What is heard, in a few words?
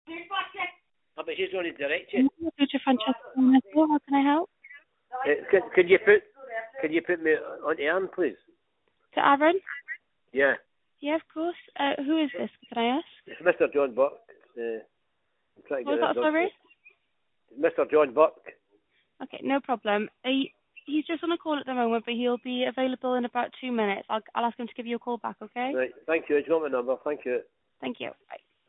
call recording